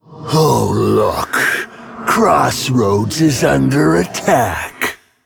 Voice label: Male Undead Intro

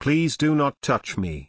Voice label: Male NightElf; Kurtrus; Scabbs Mission9ExchangeAJ

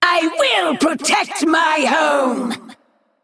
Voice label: Female Naga Play

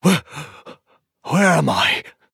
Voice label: Male Night Elf; Naralex; Guff

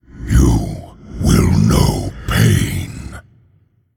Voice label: Male Undead Emote Start

